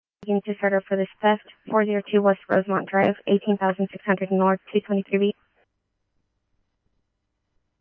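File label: scanner, Phoenix Police Department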